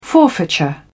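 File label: "forfeiture", British English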